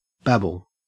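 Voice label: "babble", Australian English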